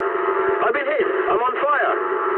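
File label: English on fire mood med; War Thunder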